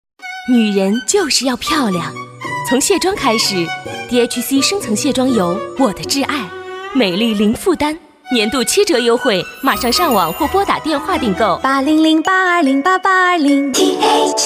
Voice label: voices CHINESE female adult MANDARIN; KER-SOUND

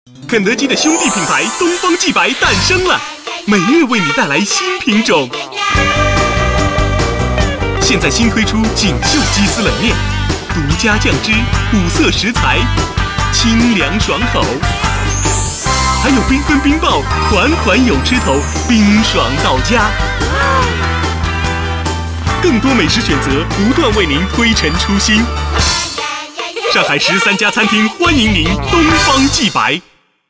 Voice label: MANDARIN Male

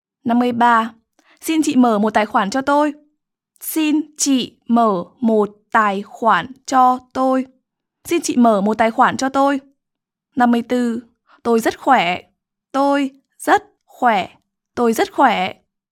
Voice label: VIETNAMESE Female Adult; Ker Sound Studio Shanghai China

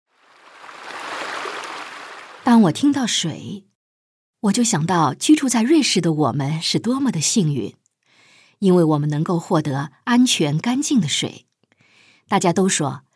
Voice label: VOICES ENGLISH Male Adult; KERSOUND

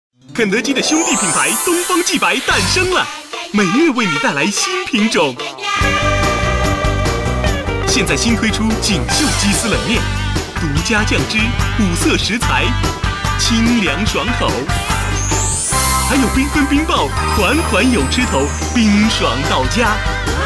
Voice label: voices CHINESE male adult MANDARIN; KER-SOUND

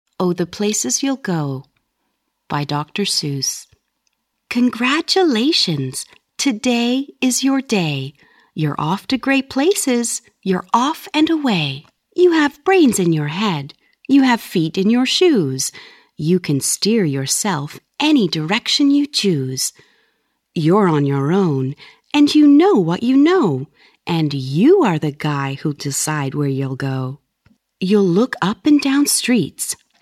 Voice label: ENGLISH - Female - Adult, Canada; Ker Sound Studio Shanghai China

